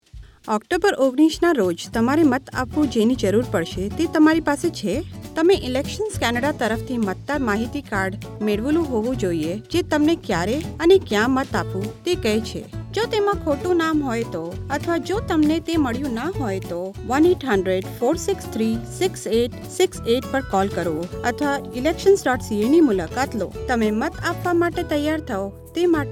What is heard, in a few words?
gujarati